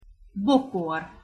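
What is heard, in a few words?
"bokor", Hungarian pronunciation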